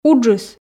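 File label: "уджыс", Komi, female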